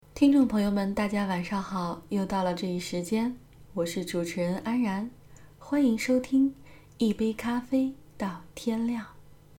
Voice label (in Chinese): 午夜电台; 一杯咖啡到天亮